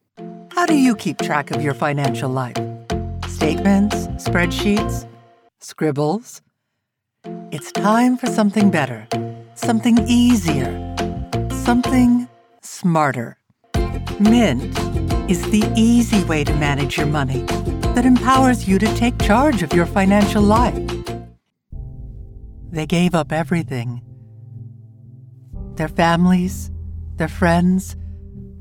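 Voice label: American English narration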